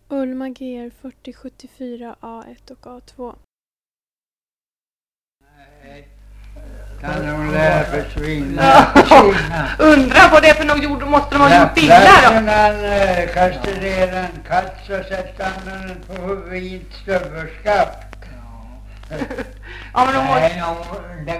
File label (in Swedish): Valbo, dialekter; Svenska sagor; Folkminnen